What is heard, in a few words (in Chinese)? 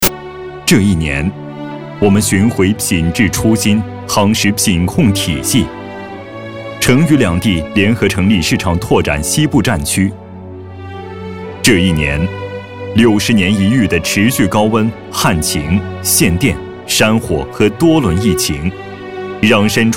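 男 宣传片 物业公司; 重庆公司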